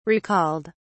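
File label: "recalled", British English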